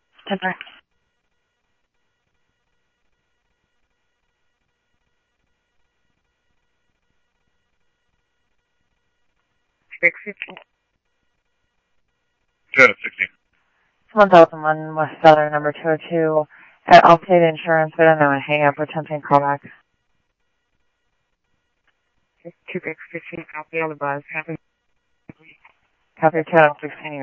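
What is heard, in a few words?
Phoenix police department, scanner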